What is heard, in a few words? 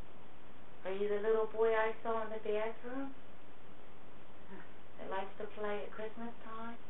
Low Male Voice